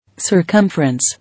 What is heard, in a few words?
"Circumference", English